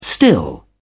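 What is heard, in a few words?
"still", British English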